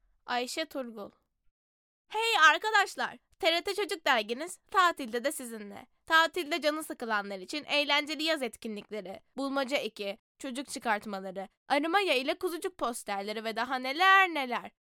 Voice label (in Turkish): Reklam